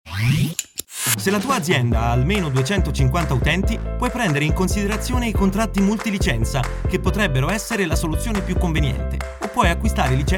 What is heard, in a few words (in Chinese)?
意大利语男-活力宣传片